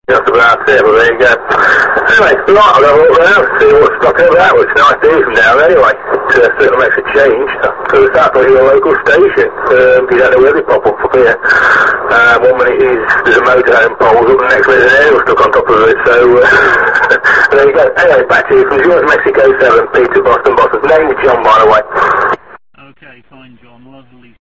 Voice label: qso